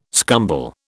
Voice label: "scumble", British